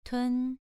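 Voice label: "tun" Mandarin Chinese, second tone, pronunciation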